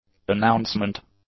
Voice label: "announcement", English; nouns